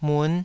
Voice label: "mun5", Taiwanese